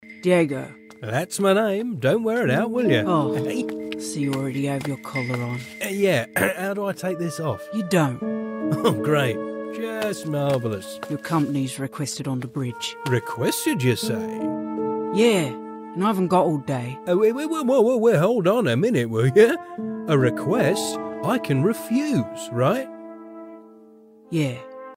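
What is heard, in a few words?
UK Adult Dialogue Gruff Low Androgynous; CICADA3000; Guard-X; retail sample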